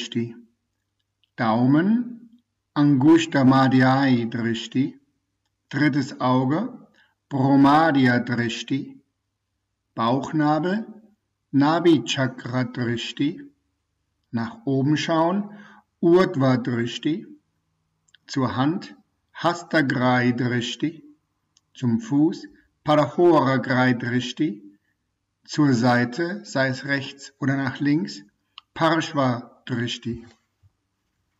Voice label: Drishtis Sanskrit